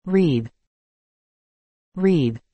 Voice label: "read", English

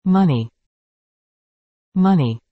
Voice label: "money", English

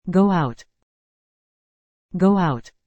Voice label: "go out", English

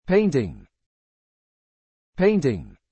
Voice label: "painting", English

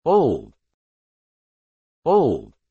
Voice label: "old", English

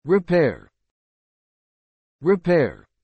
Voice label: "repair", English

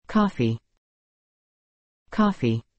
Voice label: "coffee", English

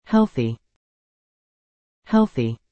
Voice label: "healthy", English

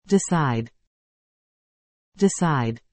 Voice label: "decide", English

